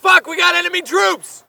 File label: spot; bf3